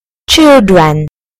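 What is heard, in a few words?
"children", British English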